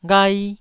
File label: "ghai1", Taiwanese syllable